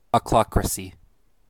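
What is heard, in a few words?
"ochlocracy", US English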